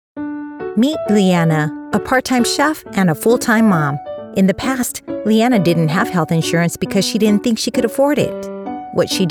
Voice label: Medicaid English, Medicaid Explainer Narration, Conversational, Friendly, relatable Female Voice; Explainer Videos